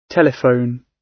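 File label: "telephone", British English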